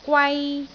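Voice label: "gwai1", Cantonese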